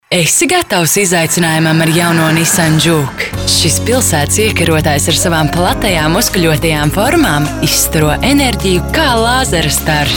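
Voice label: Latvian female voice; latviu; moteris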